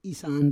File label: "isan", Roon